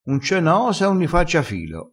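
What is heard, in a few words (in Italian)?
"fa fil", vocabolario dialettale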